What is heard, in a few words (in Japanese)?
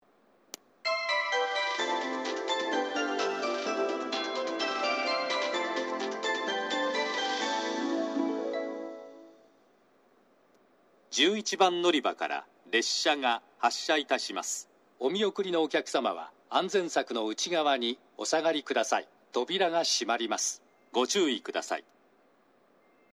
11番のりば発車メロディ＋発車予告放送, 西九州新幹線ホーム 自動放送; 列車が遅れて来ると、メロディ途中より発車予告放送が被ります。